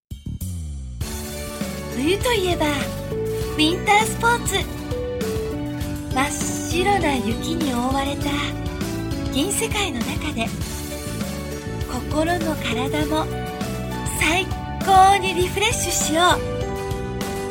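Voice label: Japanese narration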